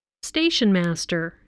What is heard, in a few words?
"stationmaster", voice